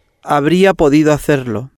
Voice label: "Habria Podido Hacerlo", spanish

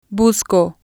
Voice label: "busco", spanish

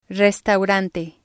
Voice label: "restaurante", spanish

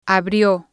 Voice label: "abrio", spanish

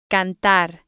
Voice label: "cantar", spanish

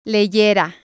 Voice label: "leyera", spanish

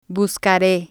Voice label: "buscare", spanish

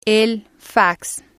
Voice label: "al Fax", spanish

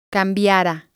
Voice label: "cambiara", Spanish

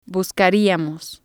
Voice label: "buscariamos", spanish

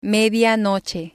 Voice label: "medianoche", spanish

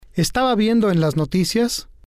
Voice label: "estaba Viendo En Las Noticias", spanish

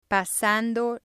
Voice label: "pasando", spanish

